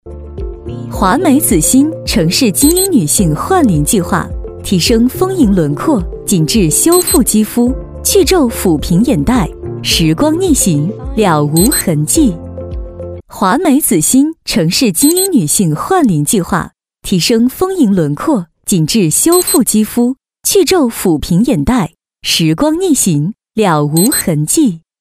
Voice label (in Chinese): 女 广告 磁性品质 化妆品